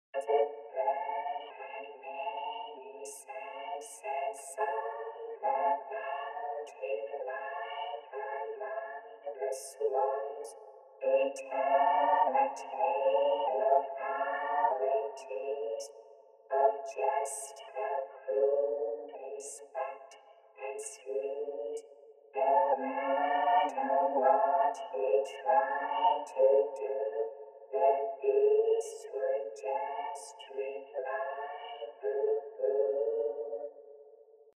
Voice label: Vocoder Fun Boo Hoo Eerie Voice